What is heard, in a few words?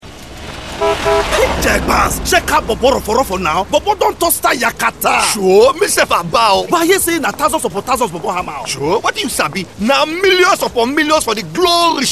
Radio; GLO; Recharge To Stardom